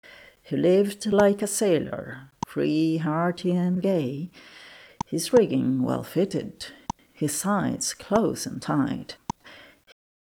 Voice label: aggressive adeclick, mouth clicks; remove mouth clicks from audio